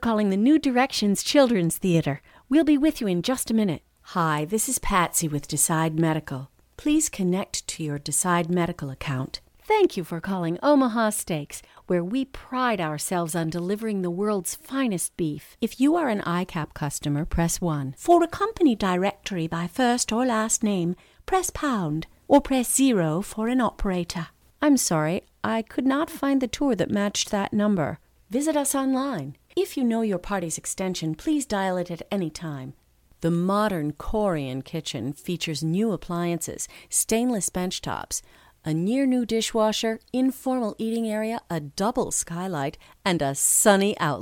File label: on hold